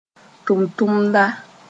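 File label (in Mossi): "tʋmtʋmda", Mooré; servant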